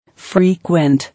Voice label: "Frequent", English

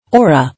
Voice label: "Aura", English

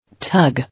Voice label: "Tug", English

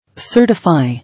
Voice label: "Certify", English

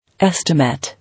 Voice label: "Estimate", English

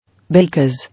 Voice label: "Because", English

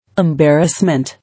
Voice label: "Embarrassment", English

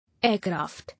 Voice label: "Aircraft", English

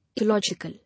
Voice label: "Illogical", English

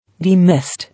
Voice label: "Demist", English

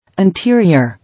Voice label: "Interior", English

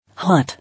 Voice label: "Hot", English

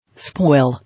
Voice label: "Spoil", English

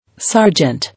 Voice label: "Sergeant", English

